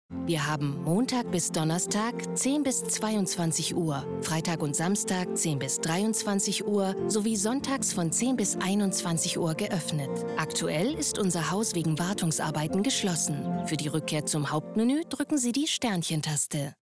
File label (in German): therme telefon offnungszeiten wartungsarbeiten